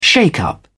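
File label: "shake-up", British English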